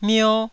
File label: "mio1", taiwanese